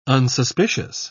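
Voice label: "unsuspicious", British English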